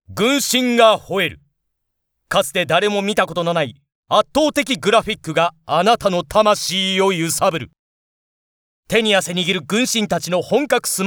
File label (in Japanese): ゲーム告知ナレーション